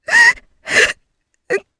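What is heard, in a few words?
Vox Sad Japanese; Hilda; kingsraid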